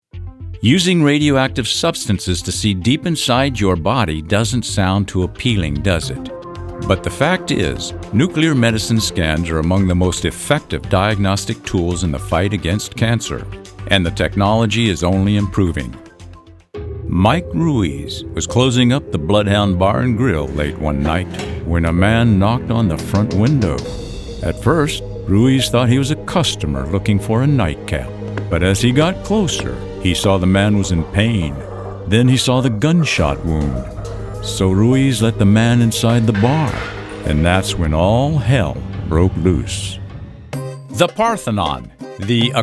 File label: Narration